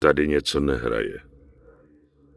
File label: Night Elf Male Goodbye; wowpreklad; czwow; NightElfVocalMale